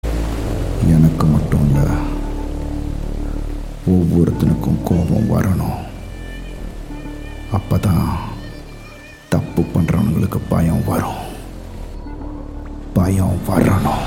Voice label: Dialogue Ringtone; Sinam; Arun Vijay